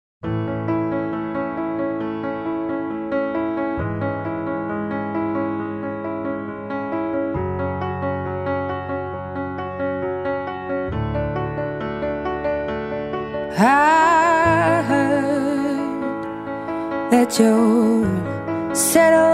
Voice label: ANIV PARA IRMa PL FEM

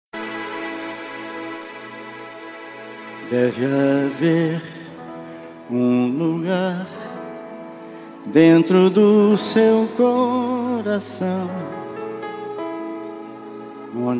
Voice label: ANIV PARA PAI, telemensagem aniversario pai

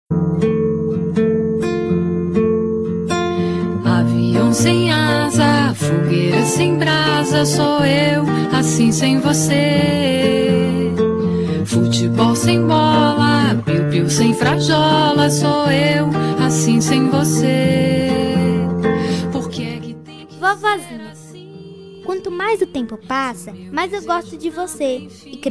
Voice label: telemensagem; DIA DAS MAES PARA AVO

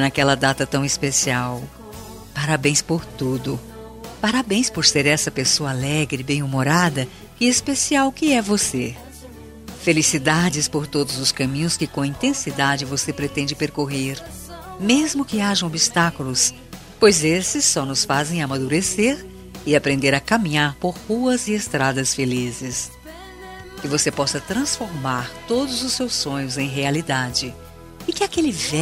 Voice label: ANIV ATRASADO NEUTRO FEM; telemensagem aniversario atrasado geral neutro

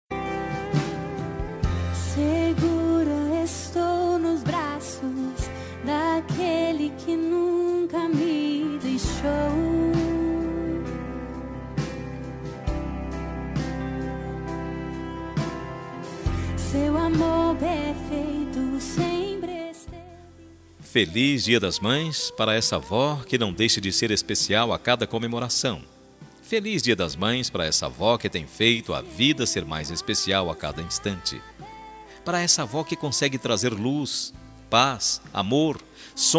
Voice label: Dia das Maes Avo MASC, telemensagem dia das maes avo

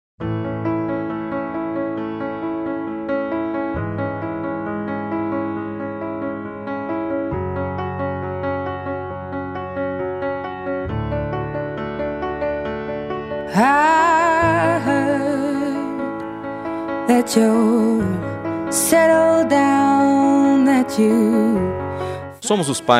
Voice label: ANIV FILHA PL MASC, telemensagem

